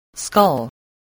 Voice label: "skull", voice, spelling

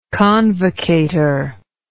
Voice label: "convocator", English

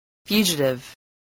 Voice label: "fugitive", English voice, spelling

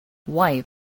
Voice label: "wipe", spelling

